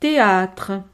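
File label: "theatre", French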